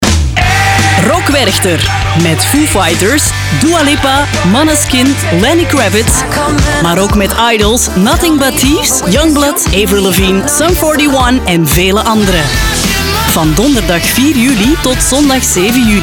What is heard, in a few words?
RADIO; Rock Werchter 2024; NL